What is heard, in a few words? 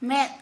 "Eye", Santali